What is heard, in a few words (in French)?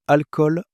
"alcool", French